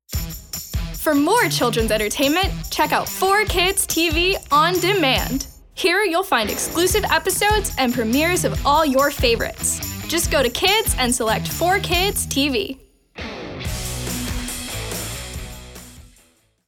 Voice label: KIDS TV, energetic, upbeat and friendly teen